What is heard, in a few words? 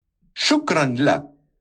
thank you arabic; turkey dash